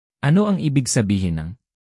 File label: "ibig sabihin", tagalog; tagalog phrases